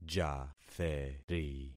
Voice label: "jatheri", concat syllables; Affixing experiment

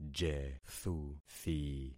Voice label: "jethuthi", concat syllables; Affixing experiment

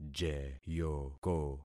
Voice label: "jeyoko", concat syllables; Affixing experiment

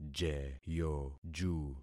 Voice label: "jeyoju", concat syllables; Affixing experiment

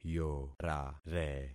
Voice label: "yorare", concat syllables; Affixing experiment

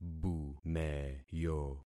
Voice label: "bumeyo", concat syllables; Affixing experiment

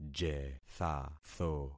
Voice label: "jethatho", concat syllables; Affixing experiment

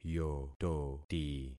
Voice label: "yototi", concat syllables; Affixing experiment

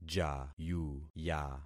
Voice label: "jayuya", concat syllables; Affixing experiment

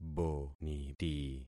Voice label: "boniti", concat syllables; Affixing experiment

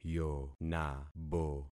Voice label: "yonabo", concat syllables; Affixing experiment

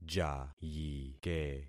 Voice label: "jayike", concat syllables; Affixing experiment

